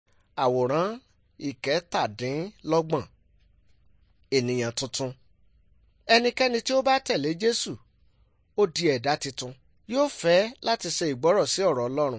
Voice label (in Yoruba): good news yoruba